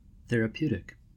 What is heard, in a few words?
"therapeutic", US; pronunciations